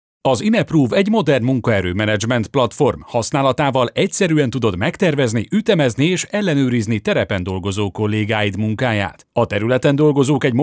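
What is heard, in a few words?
Hungarian, male, Commercial DRY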